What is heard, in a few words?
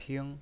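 "ping" first tone, pinyin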